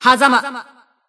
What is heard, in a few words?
System Voice; BBCP; Bullet